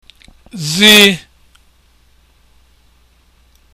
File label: z letter, igbo